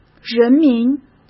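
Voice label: "人民" Mandarin Chinese; hsk; chinese-flashcards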